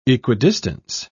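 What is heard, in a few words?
"equidistance", British English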